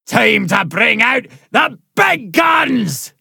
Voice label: angry alright lassy; conductor boss; a hat in time